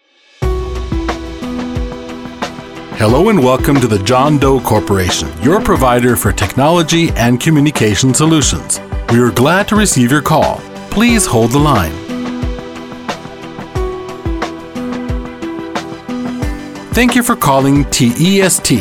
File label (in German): Warteschleife Englisch